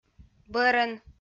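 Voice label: "бӧрӧн", Komi-Zyrian, female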